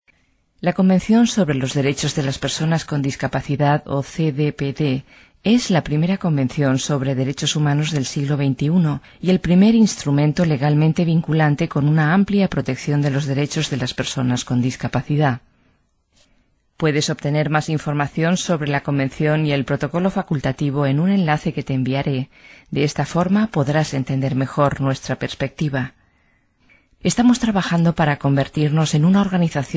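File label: Spanish e-learning, frauen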